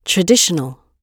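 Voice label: "traditional" British English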